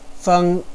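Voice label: "feng1", Mandarin Chinese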